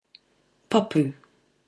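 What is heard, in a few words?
"papu", Māori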